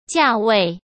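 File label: "价位", Mandarin Chinese; chinese-flashcards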